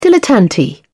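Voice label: "dilettante", British English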